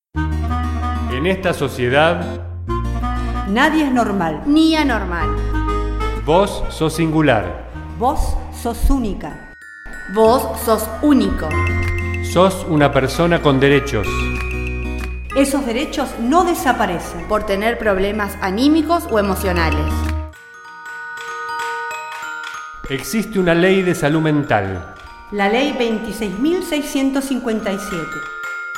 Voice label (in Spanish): SPOT